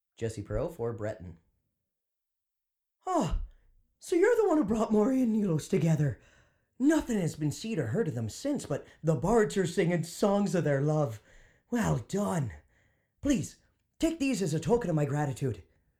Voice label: breton, breton voice auditions

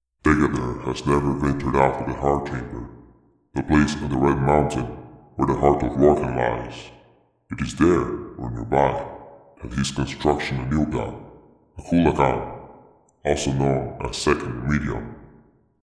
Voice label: low pitch, unique voice auditions; vivec; where is dagothur

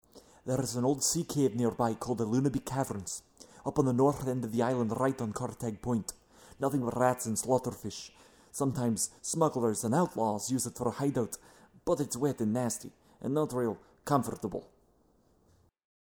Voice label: voice acting breton scottish; skywind

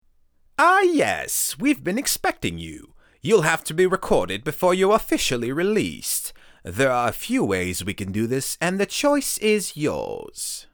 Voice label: breton, breton voice auditions; socucius ergalla

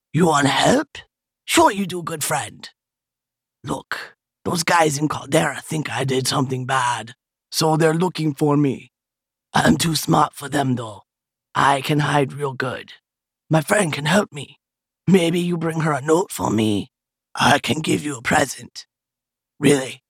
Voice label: orc

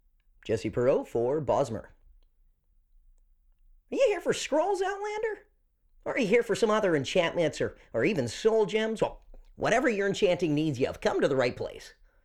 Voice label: bosmer, wood elf voice auditions

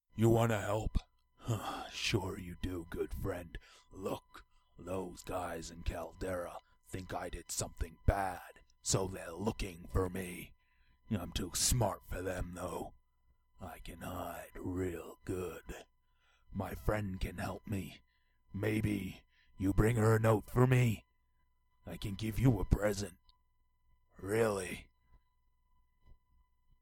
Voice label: orc thief

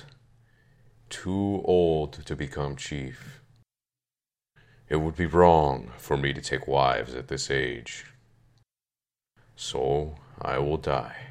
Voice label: orc male audition, voice acting; skywind

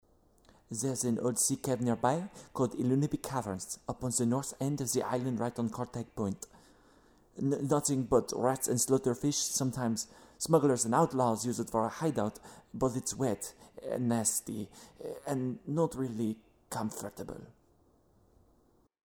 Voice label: voice acting breton french sample; skywind

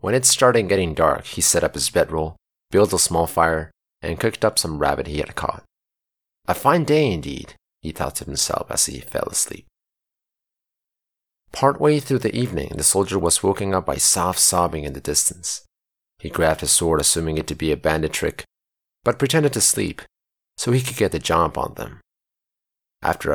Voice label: cabin in the woods narration

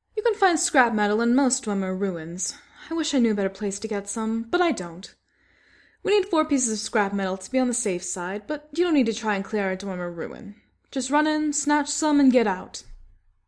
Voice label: voice audition wood elf female; skywind

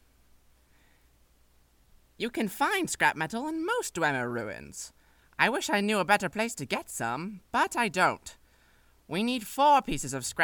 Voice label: male bosmer audition, wood elf voice auditions

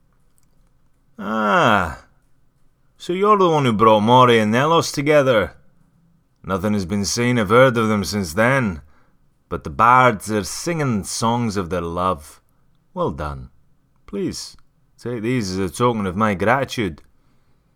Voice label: breton scottish

